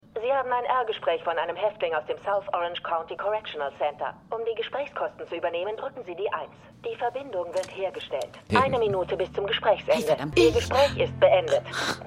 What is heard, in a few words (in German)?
Telefonstimme Gefaengnis; Dead To Me